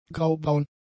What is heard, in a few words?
"graubraun", German; adjectives